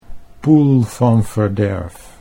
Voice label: "poel van verderf", Dutch